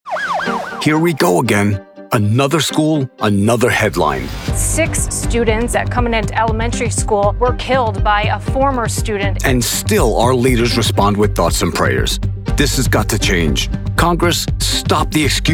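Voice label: Democratic Political, Democratic political voice over for campaigns, PACs, and issue advocacy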